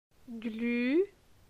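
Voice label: "Glu", French; la syllabe u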